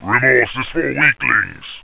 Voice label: "remorse is for weaklings", rumbly

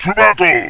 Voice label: "to battle", rumbly; tactics

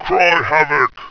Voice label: "cry havoc", rumbly; tactics

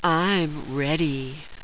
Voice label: im ready, sultry; tactics

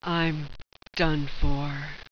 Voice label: im done for, sultry, death

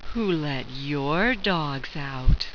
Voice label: "who let your dogs out", sultry; tactics

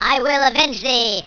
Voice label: "i will avenge thee", squeaky

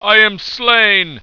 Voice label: "i am slain", heroic; tactics; death

